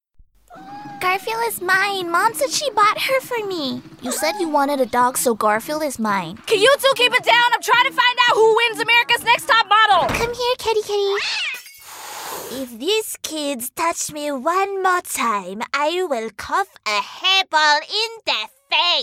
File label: Animation